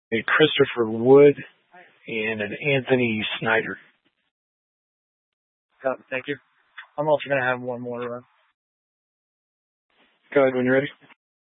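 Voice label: Sheriff Dispatch, Scanner audio recording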